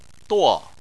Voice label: "duo4", Chinese

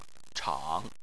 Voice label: "chang3", chinese

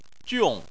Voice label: "jiong4", Chinese

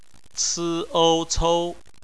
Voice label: "cou", Chinese; phonetics; bpmf

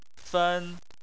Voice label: "fen1", Chinese

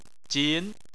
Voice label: "jin2", Chinese

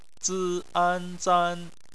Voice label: "zan", Chinese

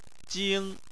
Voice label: "jing1", Chinese

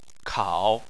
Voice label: "kao3", Chinese

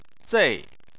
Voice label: "zei4", Chinese

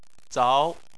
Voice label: "zao2", Chinese

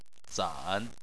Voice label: "zan3", Chinese

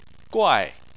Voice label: "guai4", Chinese Phonetics

